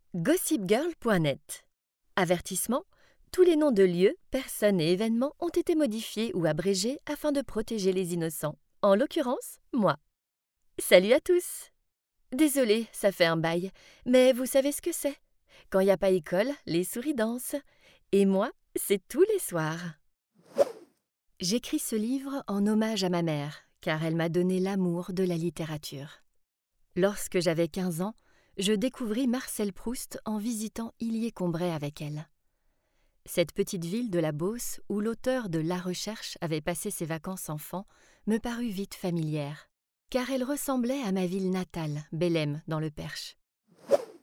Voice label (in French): Audio Book FR, Voix Off